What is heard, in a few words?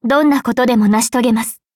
upgrade, ja; cookierun